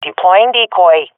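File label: throwing decoy, swat female; vo; Counter Strike Model Pack; CS:GO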